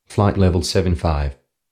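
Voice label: flight level 75, Australian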